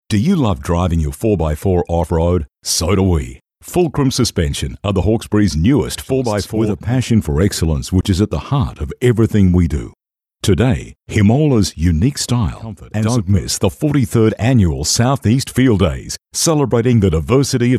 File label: Soft Sell